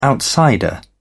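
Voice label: "outsider", British English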